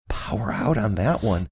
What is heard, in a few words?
quietly saying power out on that one